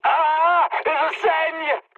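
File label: damaged pilot, mood high, French; War Thunder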